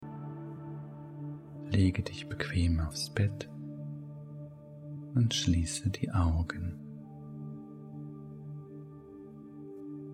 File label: meditation healing woman